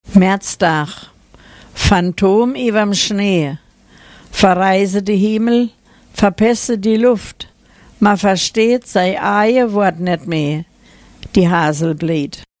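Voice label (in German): 17 maerzdaach, O-Ton Hunsrück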